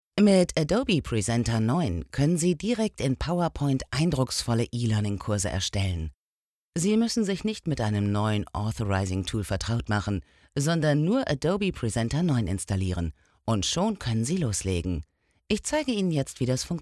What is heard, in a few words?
Presenter; Adobe